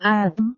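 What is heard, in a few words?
"atm", Indonesian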